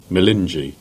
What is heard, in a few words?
"Bolingey", Cornish; place names